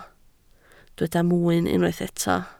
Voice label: "mwyn", Welsh